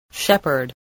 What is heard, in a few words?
"shepherd", spelling